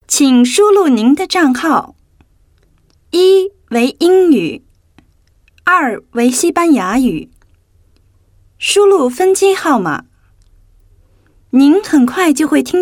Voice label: Mandarin, Mandarin China Female